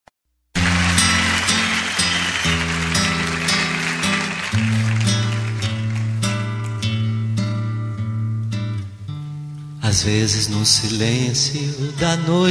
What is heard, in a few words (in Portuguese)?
ROM DECLARACAO QUERO ESTAR COM VOCE FEM; Telemensagens; Declaração de amor; Telemensagens 2017